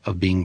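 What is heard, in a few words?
of beeng, American English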